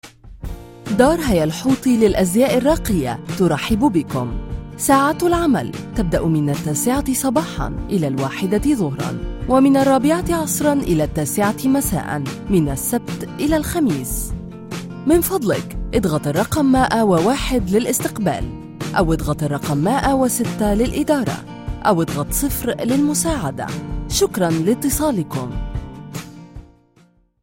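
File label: Arabic, female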